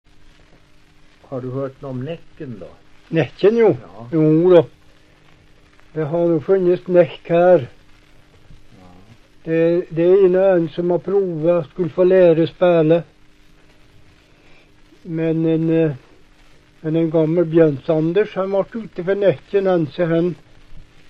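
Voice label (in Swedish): Hede Härjedalen, dialekter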